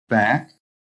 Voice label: "BACK", american